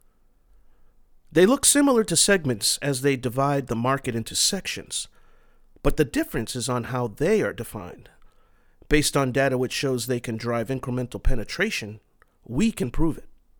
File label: explanation video